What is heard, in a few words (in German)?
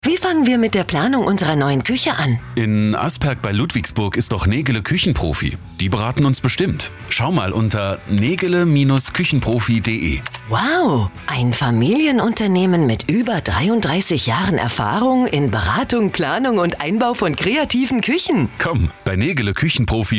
Radiospot; Negele Kuechenprofi